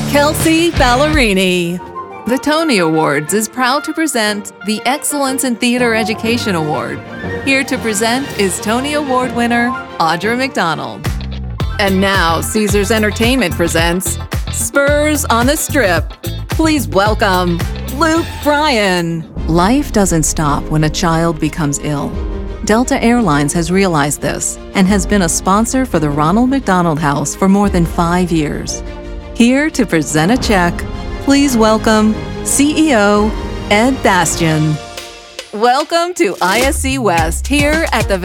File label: Live Announce